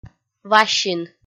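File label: "васин", Komi, female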